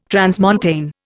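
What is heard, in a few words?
"transmontane", Indian English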